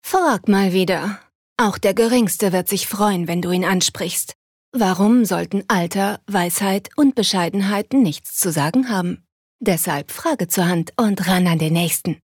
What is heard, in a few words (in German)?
Werbung Ueberheblich